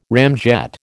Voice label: "ramjet", American